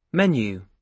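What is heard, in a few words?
"menu", British English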